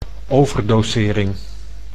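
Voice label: "overdosering", Dutch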